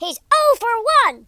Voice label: "missed", US Sports speech